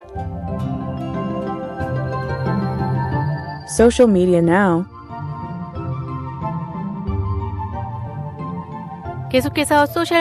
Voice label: korean; 평창올림픽 호주대표 한인 1.5세 앤디정을 위한 '크라우드펀딩' 출범; SBS Korean; 호주국가대표로 평창동계올림픽에 출전하는 한인동포 1.5세대 앤디 정 선수의 재정적 지원을 위한 크라우드펀딩이 호주 스포츠 재단을 통해 시작됐다.